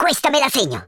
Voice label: i'll get you, speech Italian

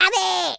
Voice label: fireball, speech, Madchester

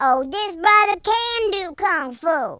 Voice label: fireball, speech, Gangsta

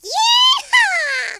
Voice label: "amazing", Cowgirl; speech

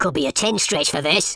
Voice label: "uh oh", speech

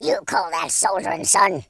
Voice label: boring, speech, War General

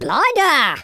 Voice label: "amazing", London Cabbie; speech

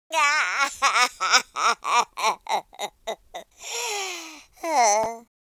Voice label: sad, speech; Worms3D; Spanish Inquisition

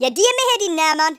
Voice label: "oh dear", Geordie; speech

